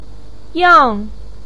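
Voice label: "yang4" Chinese syllable, pinyin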